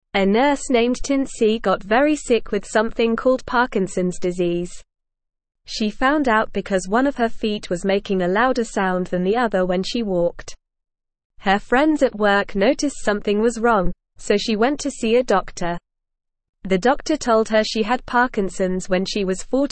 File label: English Newsroom Beginner NORMAL Reading; Tincy the Nurse with Parkinsons Helps Others